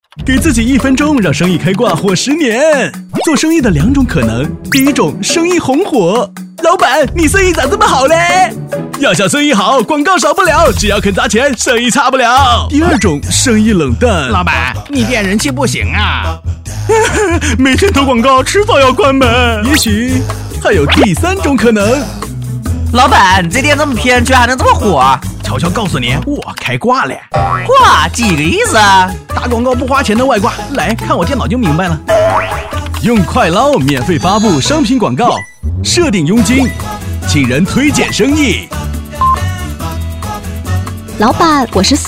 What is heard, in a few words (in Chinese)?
男 极欢脱